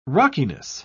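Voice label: "rockiness", British English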